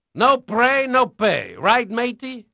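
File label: Portuguese male, voice; Pirates Of The Caribbean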